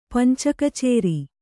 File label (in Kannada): "ಪಂಚಕಚೇರಿ", kannada